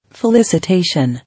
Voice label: "Felicitation", English